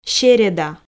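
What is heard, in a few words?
"середа", Komi, female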